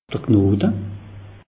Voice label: "knote", alsace; meteo

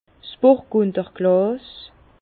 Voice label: "burgunderglas", Bas Rhin; vin; ballon de bourgogne

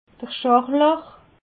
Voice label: "scharlach", alsace

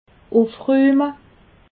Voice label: "ufrume", alsace

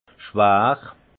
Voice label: "schwar", alsace; vin; lourd

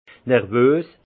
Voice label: "nervos", alsace; vin; nerveux

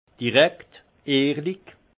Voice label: "direkt", alsace; franc; vin

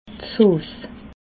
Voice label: "sos", alsace

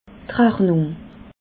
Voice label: "rachnung", alsace

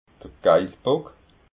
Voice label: "geissbock", alsace

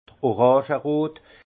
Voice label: "orangerot", alsace; vin

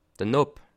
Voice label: "nab", Alsace

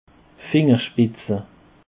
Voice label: "fingerspitze", alsace

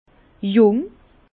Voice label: "jung", Bas Rhin; jeune; vin

